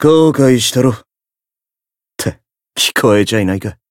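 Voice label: Voice win, Japanese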